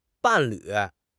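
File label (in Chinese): "伴侣", Chinese; HSK6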